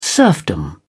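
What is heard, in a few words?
"serfdom", British English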